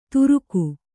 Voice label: "ತುರುಗು", Kannada

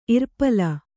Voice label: "ಇರ್ಪಲ", kannada

